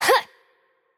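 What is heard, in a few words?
Attack Light, Russian; bloom minigame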